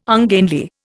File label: "ungainly", Indian